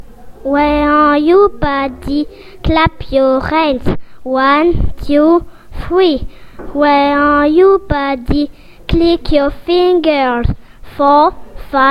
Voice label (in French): anglais